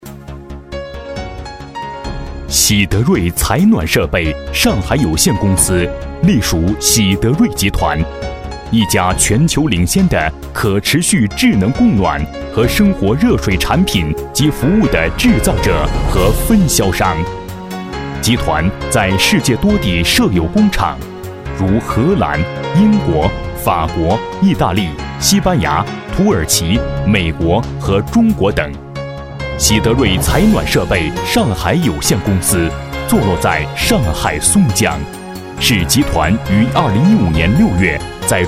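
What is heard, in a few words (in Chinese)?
【专题】男-磁性; 上海企业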